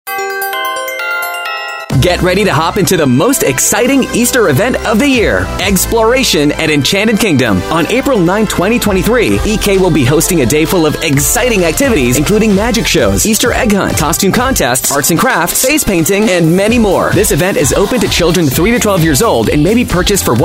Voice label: CHILDRENS PARTY Magical Voice Over Radio Commercial; Enchanted Kingdom